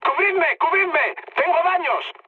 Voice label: aircraft damaged, mood high, speech; War Thunder

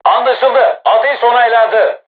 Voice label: voice message art shoot, crew tanks turkish

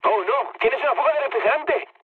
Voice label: damaged water, mood med, speech; War Thunder

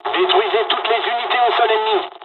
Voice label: ground attack, dialogs french; War Thunder

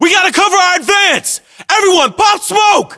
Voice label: voiceovers, US; english

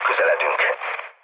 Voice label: near waypoint, mood low, speech hun; War Thunder

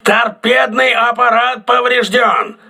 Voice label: tt damaged, crew ships russian

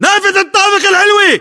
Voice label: location top floor window, battlechatter, english

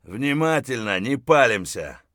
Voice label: mutter, voice; Escape from Tarkov

